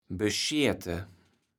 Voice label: "bescheete", kaevels platt

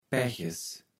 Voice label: "Päches", kaevels platt

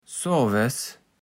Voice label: "sovaes", Platt

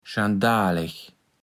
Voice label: "schandaleg", Platt

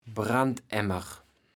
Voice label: "Brandemmer", kaevels platt

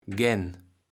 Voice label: "gän", kaevels platt

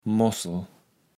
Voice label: "Mossel", Platt

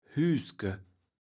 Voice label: "Hüüske", kaevels platt

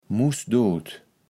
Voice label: "mußdot", kaevels platt

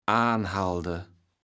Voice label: "aanhalde", kaevels platt

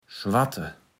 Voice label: "Schwatte", platt

